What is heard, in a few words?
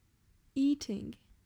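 "Eating", English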